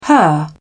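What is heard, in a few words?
"her", British English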